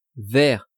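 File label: "vers", French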